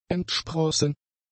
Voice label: "entsprossen", German; adjectives